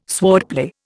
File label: "swordplay", Indian